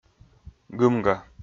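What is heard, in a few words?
"гымга", Komi, male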